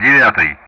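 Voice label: Speech Russian; DCS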